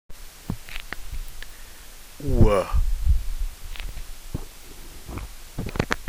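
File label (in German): "Uhr", German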